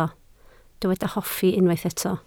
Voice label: "hoffi", Welsh